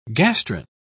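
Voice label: "gastrin", British English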